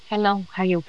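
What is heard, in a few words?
voice acting, es, female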